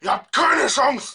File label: angry; spackenmobs